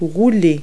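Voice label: "roll", English word; Multi-Lingual Talking Picture Dictionary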